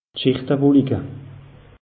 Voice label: "schichte wolike", alsace; meteo

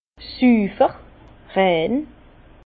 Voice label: "sufer", Bas Rhin

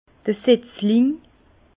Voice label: "setzling", Bas Rhin; vin; plant

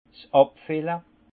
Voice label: "habfille", alsace; vin; embouteillage

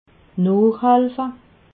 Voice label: "noochhelfe", alsace

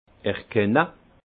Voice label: "erkenne", alsace; vin; percevoir

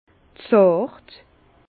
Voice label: "zart", Bas Rhin; vin; délicat

